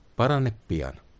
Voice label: get well soon, finnish; Parane pian